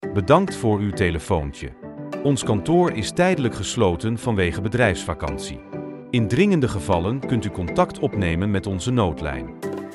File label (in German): betriebsurlaub ansage